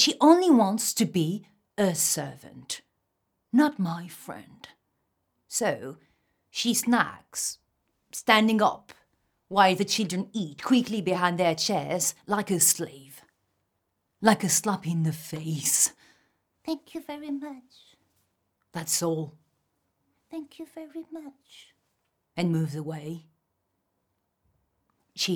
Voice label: female french english with french accent character; hilda